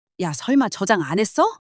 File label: anger